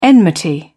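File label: "enmity", British English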